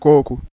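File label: "kouko", Proto-Indo-European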